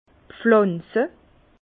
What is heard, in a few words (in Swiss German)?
"pflanze", Bas Rhin; vin; planter